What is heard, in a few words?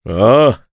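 Vox Japanese; kingsraid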